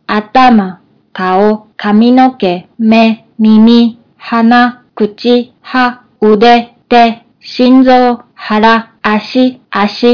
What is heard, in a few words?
japanese body